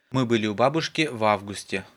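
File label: "babushka", Russian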